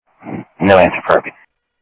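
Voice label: Sheriff West County, scanner; ThompsonPeakScot; MCSO - WEST; Sheriff-WestCnty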